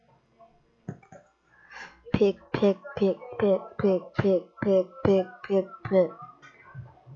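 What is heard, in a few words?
"pick", spoken word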